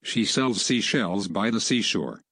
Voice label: "seashells" English